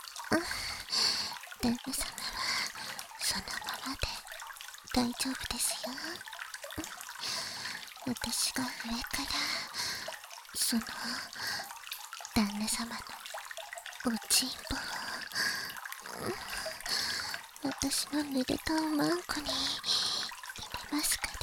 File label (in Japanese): 耳舐め対面座位（バイノーラルマイク収録 効果音あり大きめ）, ASMR